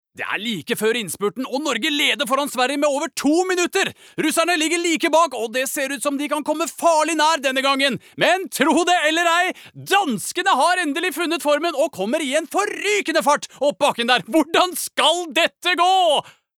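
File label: Norwegian dub commentator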